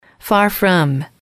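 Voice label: "far from", English, female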